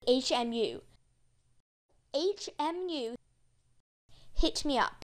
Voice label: HMU pronunciation